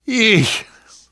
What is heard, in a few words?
reaction disgusted, survivor voice; namvet; Left 4 Dead 2; left4dead2 dlc2